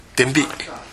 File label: "denbi", minamiyamagata